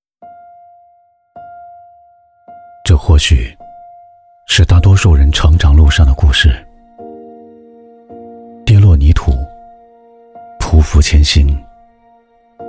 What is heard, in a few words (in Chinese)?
微电影温暖走心; 农商行